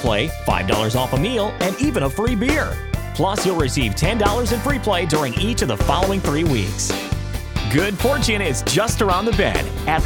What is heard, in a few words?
New Club Member Radio; Blackbird Bend